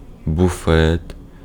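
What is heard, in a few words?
Ukrainian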